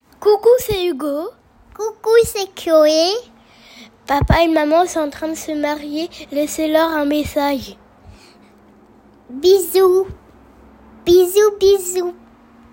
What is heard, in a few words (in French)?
livre d'or audio enfant